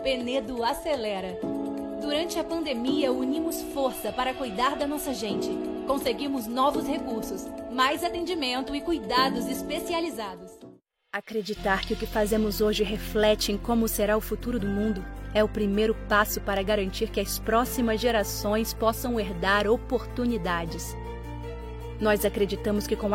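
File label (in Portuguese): Português - Brasileiro, Reel Locução, Voz Jovem, Feminino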